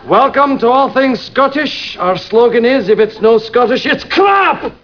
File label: scottish